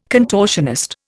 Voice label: "contortionist", Indian